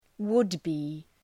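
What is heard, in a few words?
"would be", English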